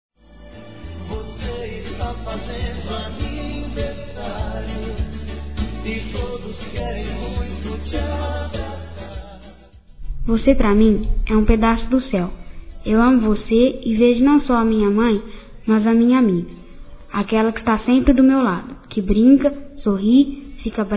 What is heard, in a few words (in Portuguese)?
Aniv. Mãe infantil Masculino; Telemensagens 2017; Aniversários